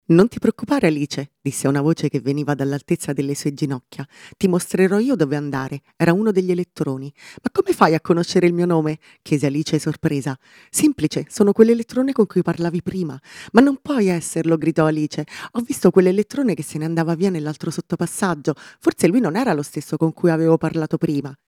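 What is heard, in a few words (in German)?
Italian, Kommentar Freundlich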